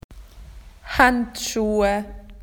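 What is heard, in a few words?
"gloves", Australian English; PictureDictionary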